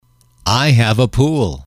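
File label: "I have a pool", English, male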